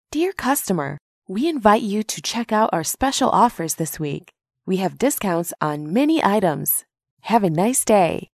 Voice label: sales offer example